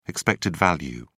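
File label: "expected value", British English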